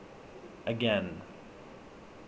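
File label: "again", Canadian, male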